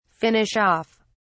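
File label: "finish off", British English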